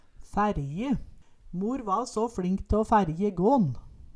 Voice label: færje, Numedalsmål

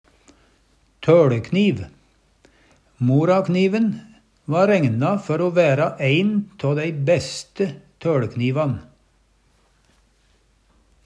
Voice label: toLekniv, Numedalsmål

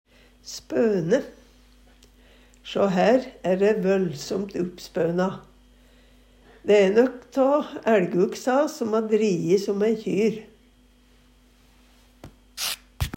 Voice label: uppspona, Numedalsmål; uppspøna; Eksempel på bruk Sjå hær æ ræ vøllsomt upp-spøna. Dæ æ nøk tå æLguksa som ha drijis om æi kjyr.

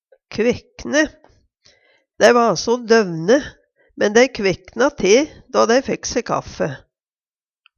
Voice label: kvekne te, numedalsmal

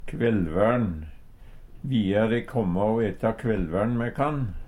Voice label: kvellvær`n, Numedalsmål; Vilja de kåmmå o eta kvellvær`n me kann?; Hushald, mat, drikke

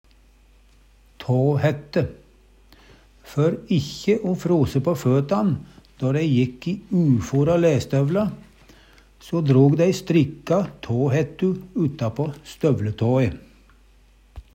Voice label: tåhætte, Numedalsmål

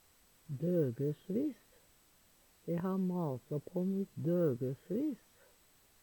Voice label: "døgøsvis", Numedalsmål; dagevis; Determinativ (mengdeord)

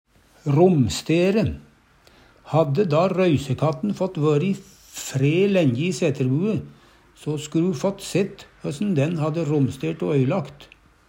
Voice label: romstere, Numedalsmål

